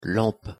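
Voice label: pronunciation "lampe", French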